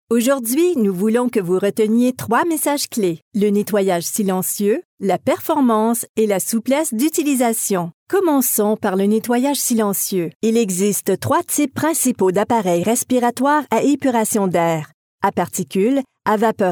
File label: french canadian female e-learning